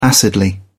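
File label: "acidly", British English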